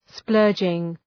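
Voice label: "splurging", English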